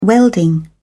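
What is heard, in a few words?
"welding", British English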